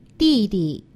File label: "didi", Chinese; Survival Chinese